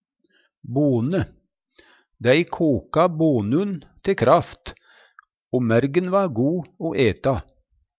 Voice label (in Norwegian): "bone", Numedalsmål; Substantiv hokjønn